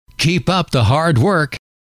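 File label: keep up the hard work, male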